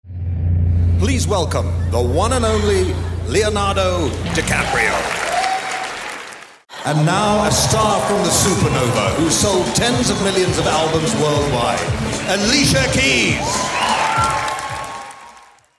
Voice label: VOICE OF GOD

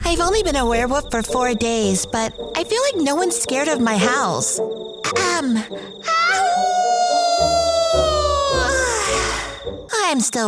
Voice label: Animation

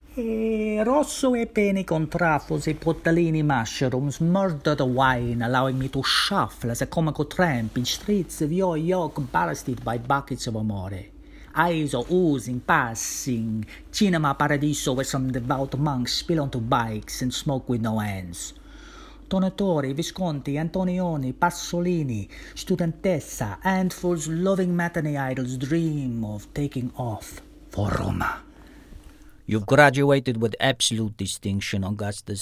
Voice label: Italian Character Accents

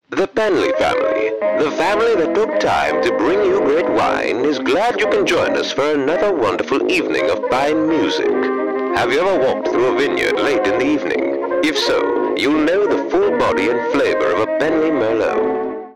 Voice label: 1950s Wine ad; en-AU

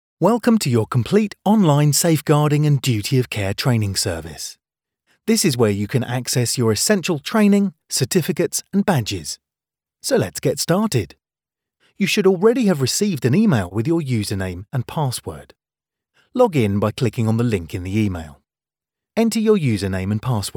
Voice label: e-learning, en-AU

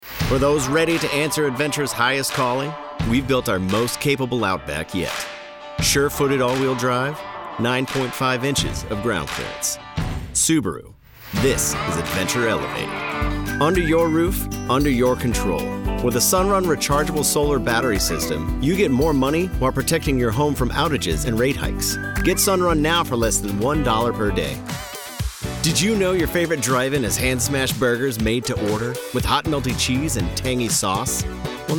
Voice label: Commercial

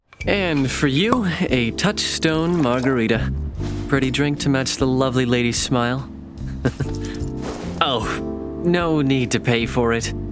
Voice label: Character

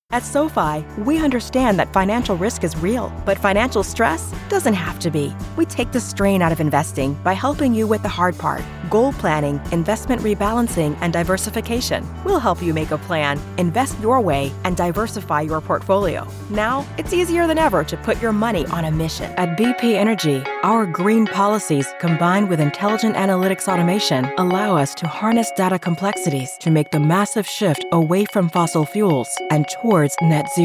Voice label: Corporate Narration, en-US

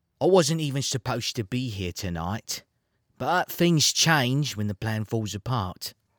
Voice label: Cockney Character

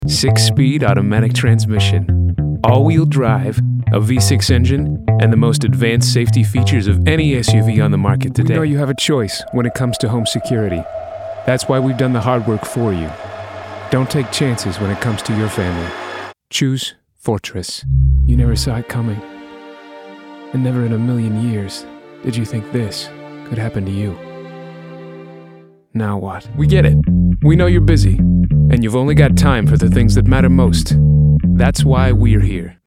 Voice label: Commercial

